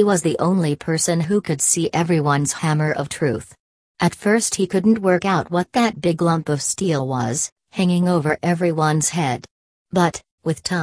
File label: story; Hammer Blows; OstadEnglish